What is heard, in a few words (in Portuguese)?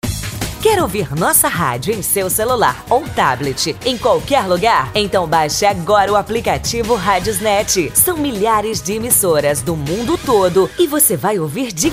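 Quer ouvir nossa radio, feminino; RadiosNet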